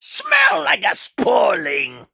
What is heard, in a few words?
Male Imp Attack